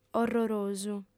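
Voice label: "orrorosu", female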